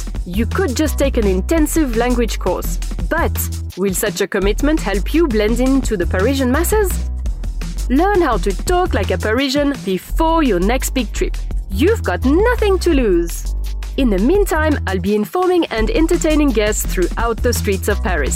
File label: English w French Accent; Learn French